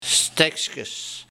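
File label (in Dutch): "Stekskes", dialect; Lucifers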